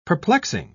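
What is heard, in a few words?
"perplexing", British English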